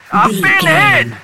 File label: radio voice male soldier i've been hit